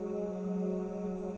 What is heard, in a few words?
lower loop voice